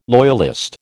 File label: "loyalist", American